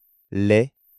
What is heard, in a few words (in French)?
"les", French